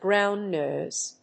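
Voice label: "ground noise", English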